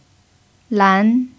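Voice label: "lán", Mandarin Chinese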